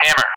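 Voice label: "Hammer", English; Callsign; DCS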